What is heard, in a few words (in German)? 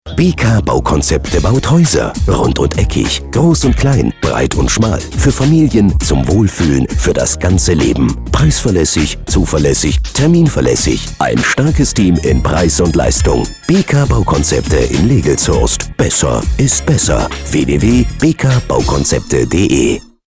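Radiospot Beispiele Bau & Projekte; Zuverlässig, strukturiert, klar; BK Baukonzepte Image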